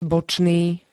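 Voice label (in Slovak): "bočný", Slovak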